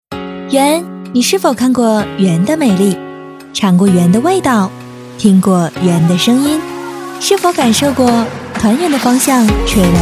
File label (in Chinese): 女 广告 青春甜美; 青春活力女配音，声线多变